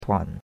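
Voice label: "tuan3", Mandarin Chinese; Cours-de-Chinois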